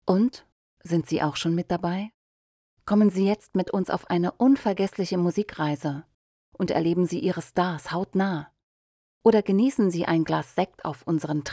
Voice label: german audiobooks, voice actors; doubleuse professionnelle allemande; Livres audio